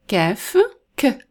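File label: "caf", Arabic letter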